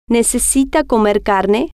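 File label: necesita Comer Carne, Spanish, female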